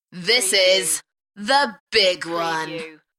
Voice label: UK female; beanstalk-audio